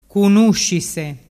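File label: "cunuscise", Vernazzese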